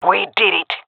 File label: cheer, jungle fem; agents; jungle fem epic